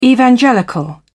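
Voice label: "evangelical", British English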